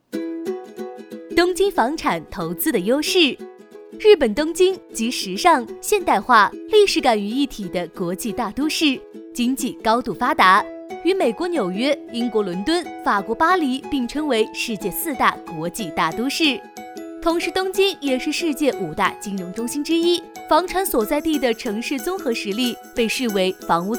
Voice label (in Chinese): 女 专题 东京房产 活力